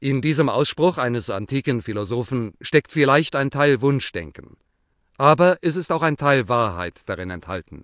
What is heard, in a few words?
German male speech